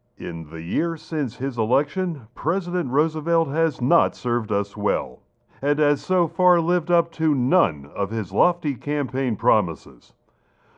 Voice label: newscaster filtered; sound effects and sound reinforcement